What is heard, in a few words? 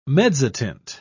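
"mezzotint", British English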